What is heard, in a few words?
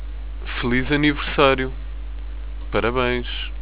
Happy Birthday, europortuguese